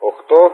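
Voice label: "8", spoken; DCS; Ka-50; Digits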